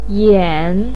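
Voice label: "yan", Mandarin pinyin, third tone; 眼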